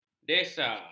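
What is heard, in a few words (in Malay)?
"desa", Malay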